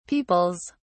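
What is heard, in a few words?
"peoples", British English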